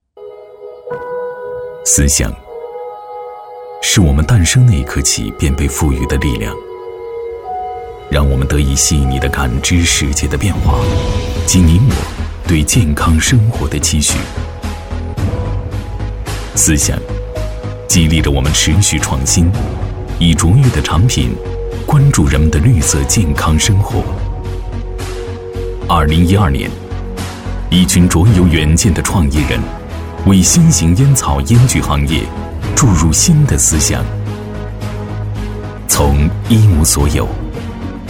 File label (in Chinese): 男,广告,自然时尚大气科技; 放下烟草赛尔美